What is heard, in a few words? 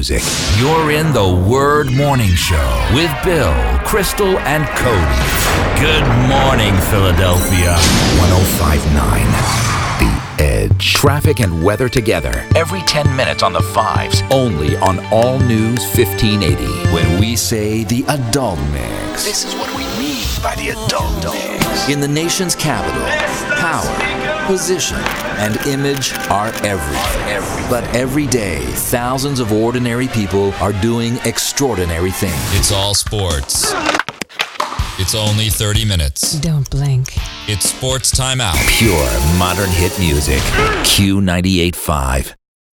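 radio, voice actor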